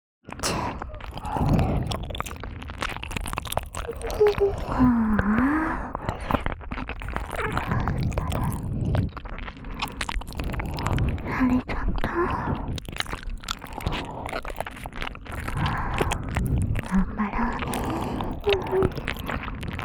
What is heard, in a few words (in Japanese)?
両耳舐めカウントダウン, ASMR